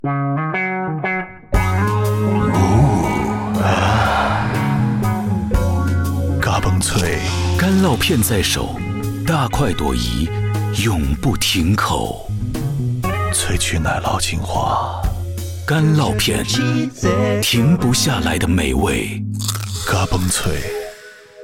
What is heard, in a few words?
Mandarin Ad Cheesy Sensual; 双点校园/电台广告